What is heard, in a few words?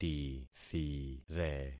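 "tithire", concatenated syllables; Affixing experiment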